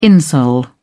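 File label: "insole", British English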